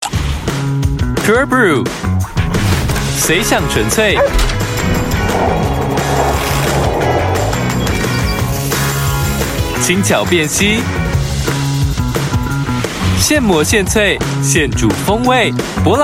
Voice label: Taiwanese